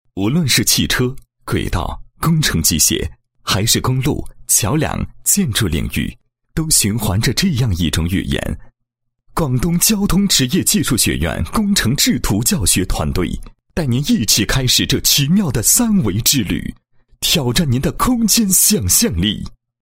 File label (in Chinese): 男 课件 工程制图及CAD课程视频解说